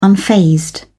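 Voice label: "unfazed", English, uk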